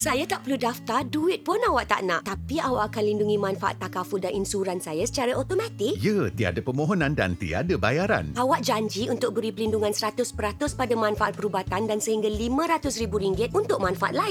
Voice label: Radio Ads TIPS; awareness-media